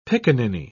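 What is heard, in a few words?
"pickaninny", British English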